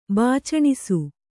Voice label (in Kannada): "ಬಾಚಣಿಸು", Kannada